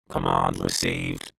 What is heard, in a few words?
science fiction robot says command received